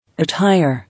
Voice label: "Attire", English